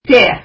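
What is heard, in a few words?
"sea" Kurdish female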